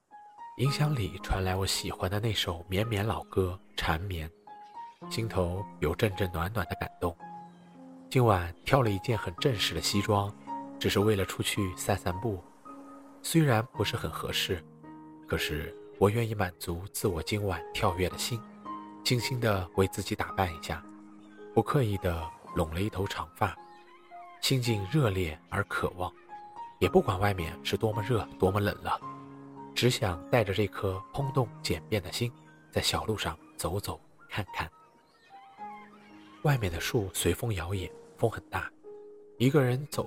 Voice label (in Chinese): 情感美文